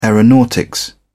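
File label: "aeronautics", English, uk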